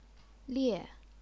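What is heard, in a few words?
"lie", Chinese, fourth tone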